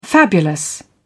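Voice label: "fabulous", British English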